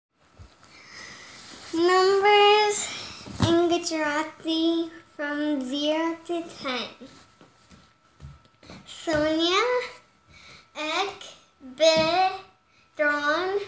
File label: Numbers From 0 to 10 in Gujarati Spoken